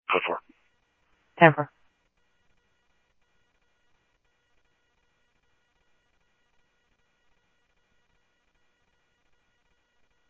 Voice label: PD Police, scanner audio

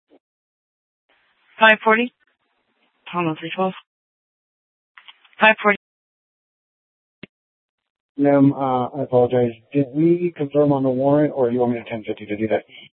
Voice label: Sheriff, scanner P25

